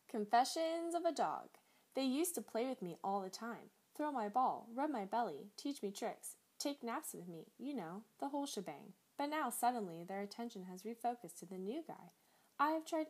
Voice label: Radio Spot; Blue Apron